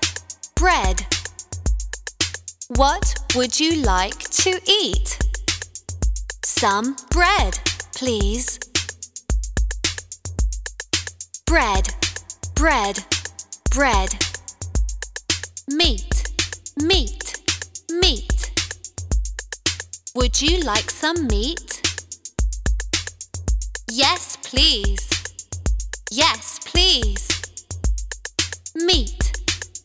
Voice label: let's chant; lesson 3 unit 15; at the dining table